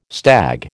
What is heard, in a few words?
"stag", American